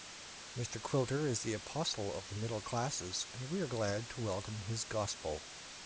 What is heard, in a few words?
librispeech low volume noisy